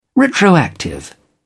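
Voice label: "retroactive", British English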